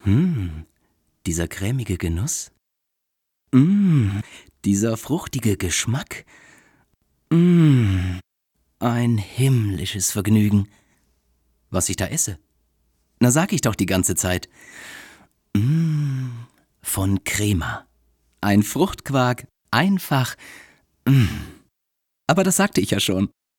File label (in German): Werbung Crema